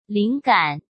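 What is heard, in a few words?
"灵感", Mandarin Chinese; hsk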